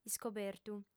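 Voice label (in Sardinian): "iscobertu", female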